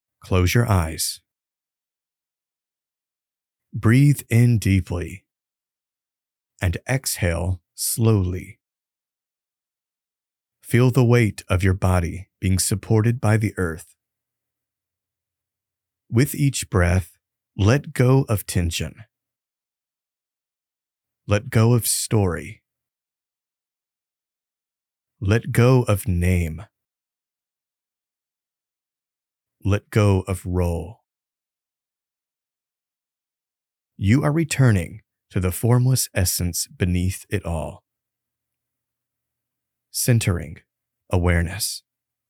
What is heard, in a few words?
Meditation